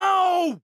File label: Hurt; Jake